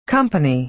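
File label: "company", American English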